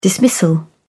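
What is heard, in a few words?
"dismissal", English, British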